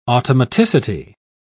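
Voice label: "automaticity", English, British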